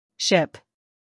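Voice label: "ship", American English, female; everyone-can-use-english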